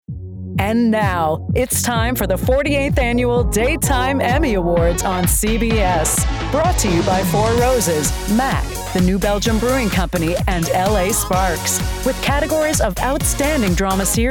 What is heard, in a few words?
LIVE ANNOUNCER w music; EMMY AWARDS